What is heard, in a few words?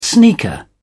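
"sneaker", British English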